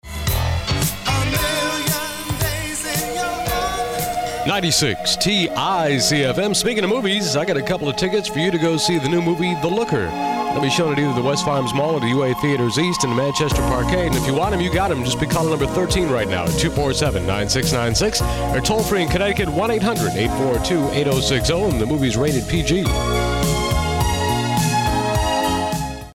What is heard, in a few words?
air check; wtic